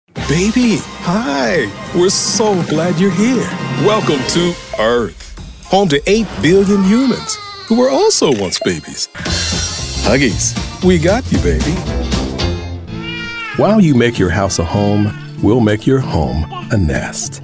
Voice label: Male Commercial

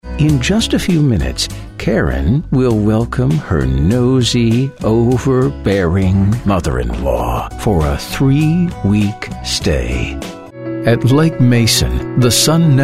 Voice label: Comml

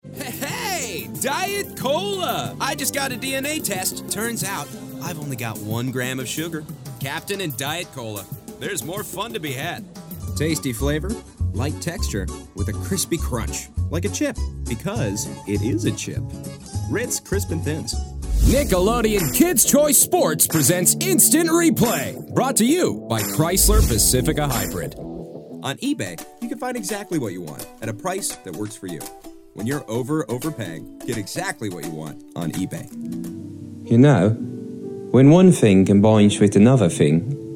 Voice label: Male, commercial

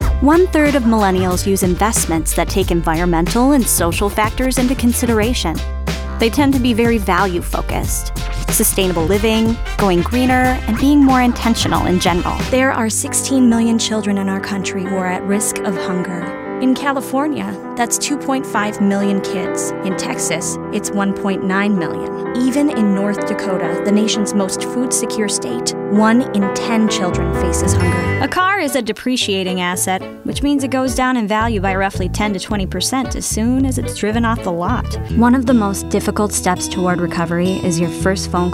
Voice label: female narration

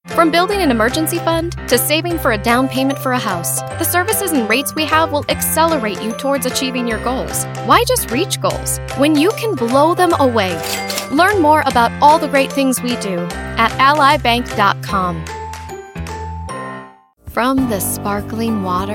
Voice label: Female Commercial

